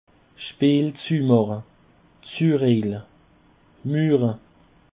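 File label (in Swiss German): "speel zu mache", Alsatian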